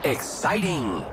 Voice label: exciting; apex